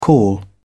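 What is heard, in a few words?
"call back", British English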